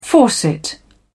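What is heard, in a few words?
"faucet", British English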